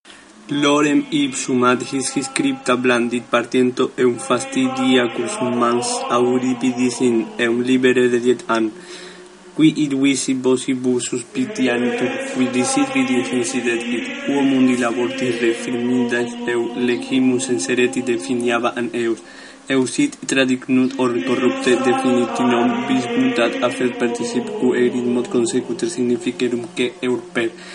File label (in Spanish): locucion